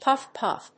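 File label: "puff-puff", English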